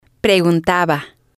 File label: "preguntaba", spanish